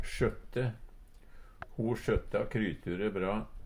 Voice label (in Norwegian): "sjøtte", Numedalsmål; Verb; Ho sjøtta kryture bra.